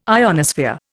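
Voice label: "ionosphere", Indian English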